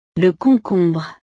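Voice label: "le concombre", French; verduras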